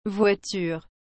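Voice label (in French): "voiture", French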